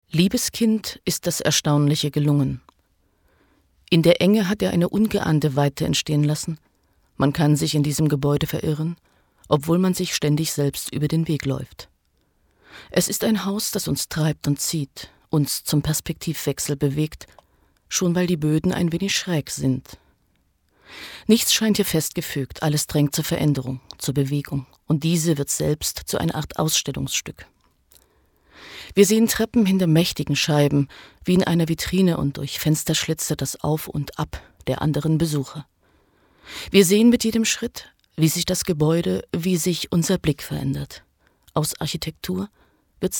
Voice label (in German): deutsch, Telefonansagen, weiblich